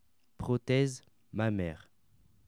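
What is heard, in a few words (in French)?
"prothese mammaire", French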